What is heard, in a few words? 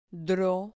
"dro", Spanish